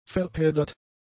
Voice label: "verheddert", German; adjectives

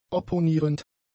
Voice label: "opponierend", German; adjectives

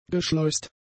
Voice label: "geschleust", German; adjectives

